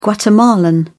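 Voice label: "guatemalan", British English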